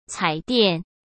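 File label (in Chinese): "彩电", Mandarin Chinese; hsk; chinese-flashcards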